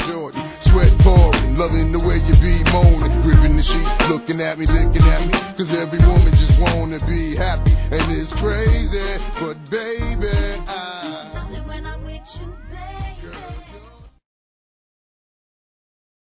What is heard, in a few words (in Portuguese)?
Natal Para Filha Masculino, Telemensagens; Natal e Ano novo